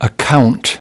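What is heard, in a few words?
"account", English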